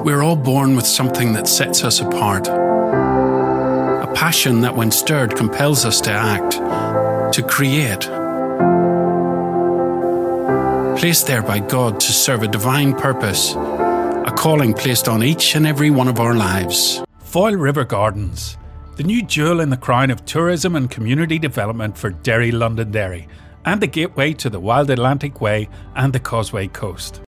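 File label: Northern Irish male voice over Commercial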